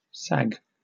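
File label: "sag", British English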